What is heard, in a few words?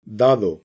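"dado", Spanish, castilla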